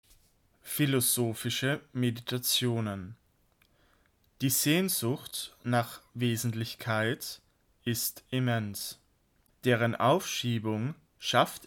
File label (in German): Einleitung philosophische Meditationen, audiobooks german